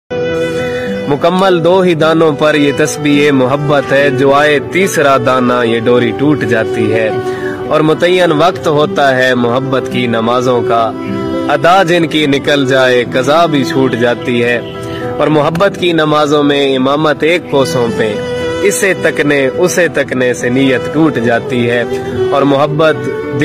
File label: Urdu Heart Touching Shayari Ringtone